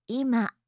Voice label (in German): "ima", japanische maedchennamen